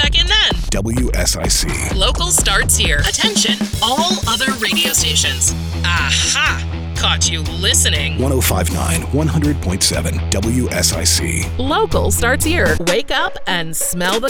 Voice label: News Talk Imaging